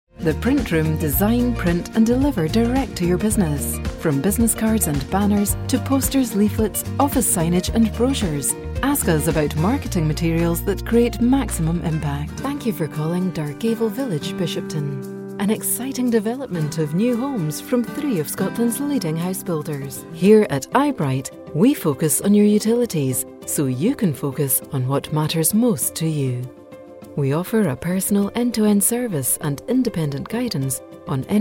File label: english scottish ivr; scottish voice actors